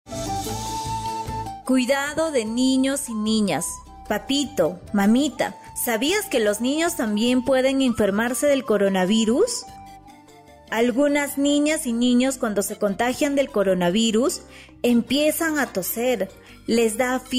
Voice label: castellano pista andina, spot de radio; cuidado de ninas y ninos; sospecha o confirmacion de contagio

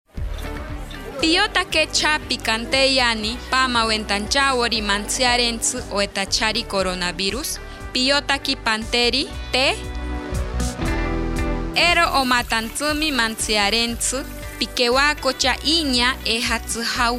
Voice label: asheninka gran pajonal, spot de radio; medidas de prevencion; recomendaciones generales

